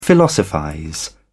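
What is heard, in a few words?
"philosophize", British English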